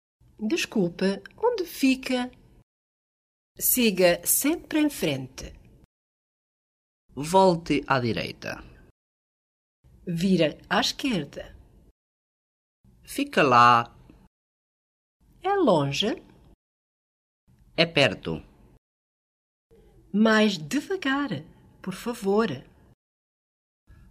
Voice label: Portugese

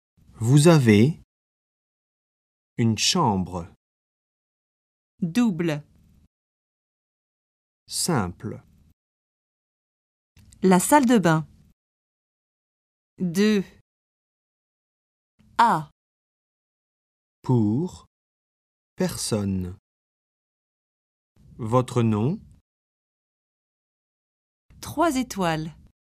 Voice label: French

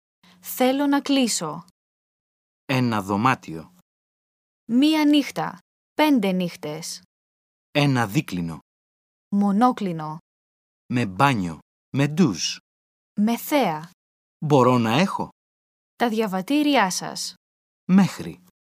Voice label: Greek

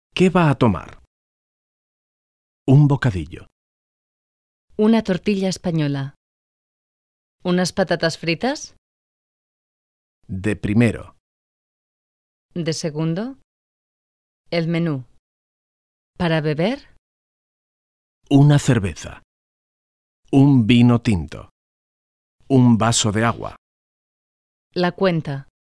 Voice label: Spanish

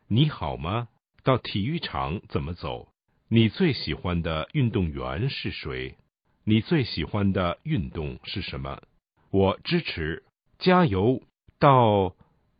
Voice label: Chinese

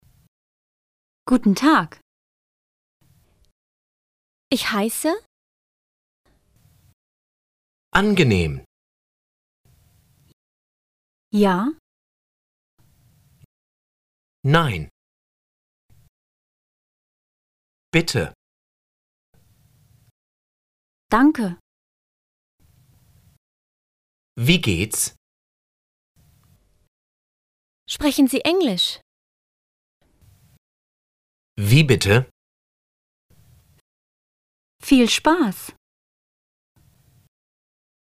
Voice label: German

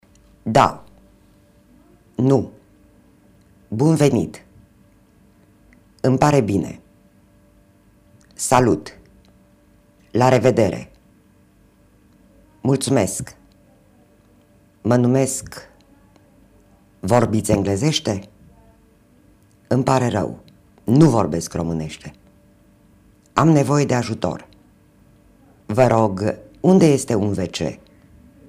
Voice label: Romanian

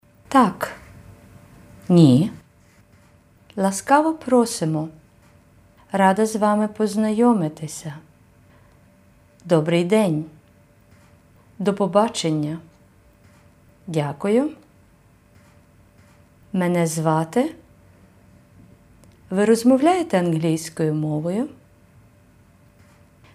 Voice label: Ukrainian

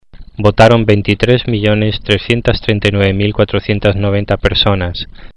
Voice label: Spanish

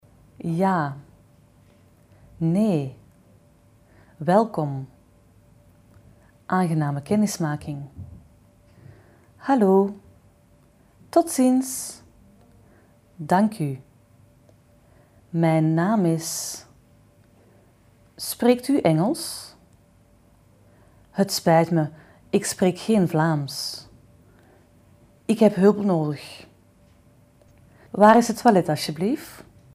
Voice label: Flemish

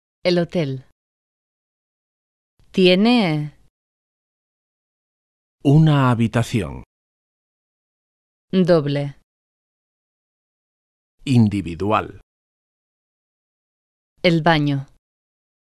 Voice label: Spanish

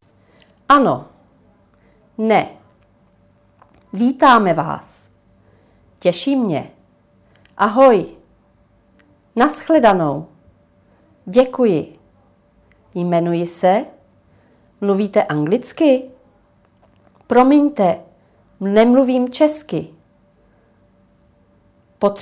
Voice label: Czech

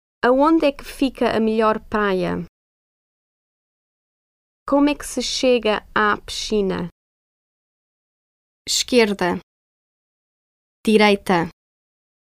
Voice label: Portugese

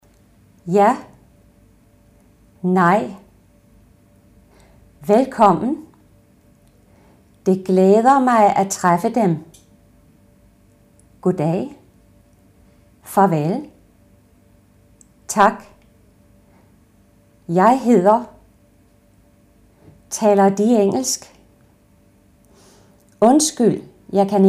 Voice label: Danish

